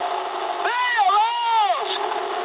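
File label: English bailout mood high; War Thunder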